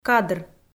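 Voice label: "кадр", Komi, female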